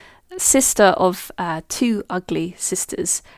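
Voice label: Spontaneous Speech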